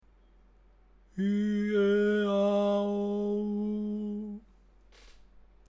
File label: male